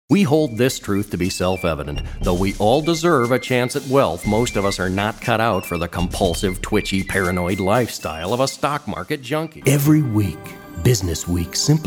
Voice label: commercials, voice